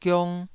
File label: "giong2", Taiwanese syllable